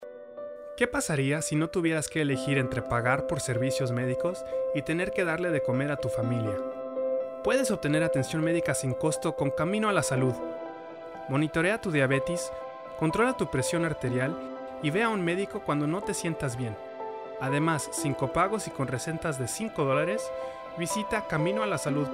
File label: Announcer